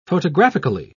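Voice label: "photographically", British English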